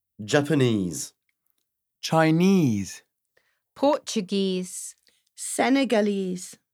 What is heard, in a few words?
Speak Accentuatio